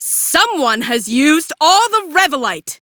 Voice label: Crew Need Revolite, female; Warframe; Railjack; Cephalon Suda